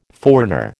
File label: "foreigner", American